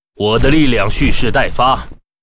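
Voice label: what, Chinese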